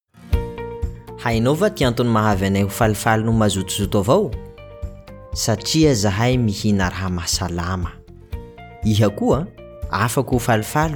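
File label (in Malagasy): Malagasy, Commercial; GoLocalise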